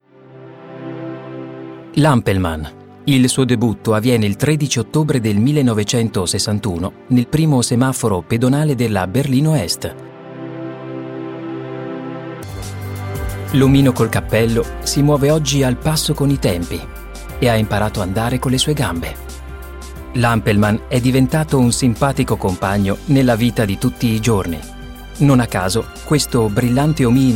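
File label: Italian, commentary, neutral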